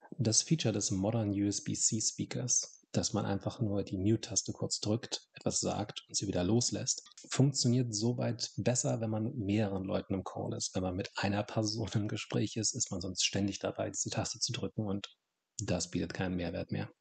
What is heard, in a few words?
Microsoft Modern USB C Speaker Mikrofontest